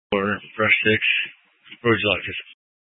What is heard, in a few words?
Incident Tac 1, scanner